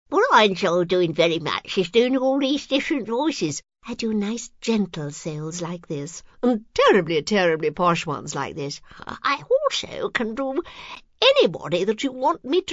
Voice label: intro, readings